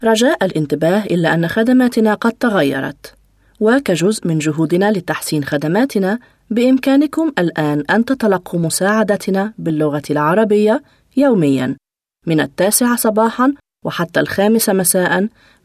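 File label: Arabic; Arabic (Iraq), Middle Eastern, Male, 30s-50s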